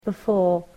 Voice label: "before", english

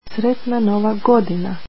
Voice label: Happy new year, croatian

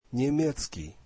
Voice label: German, russian; немецкий [nyemyetzkiy]

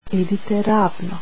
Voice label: Go straight, croatian

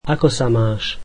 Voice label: how are you, slovak

